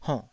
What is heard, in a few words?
"honnh3", Taiwanese syllable